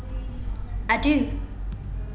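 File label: "adieu", British English, female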